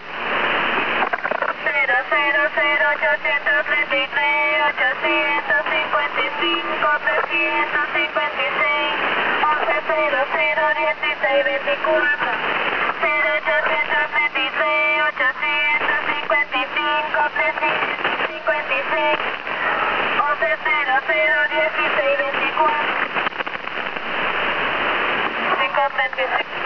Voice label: Babbler